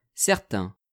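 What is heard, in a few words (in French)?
"certains", French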